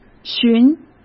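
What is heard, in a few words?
"寻", Mandarin Chinese; hsk; chinese-flashcards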